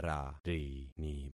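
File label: "rarini", concatenated syllables; Affixing experiment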